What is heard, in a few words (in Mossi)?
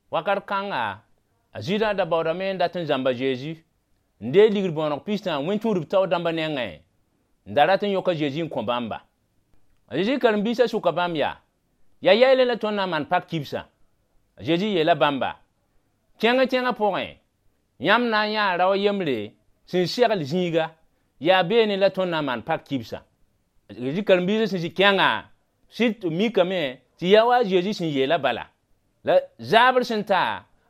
Mossi Moore; Dernier souper; The Last Supper